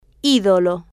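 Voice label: "idolo", female, Spanish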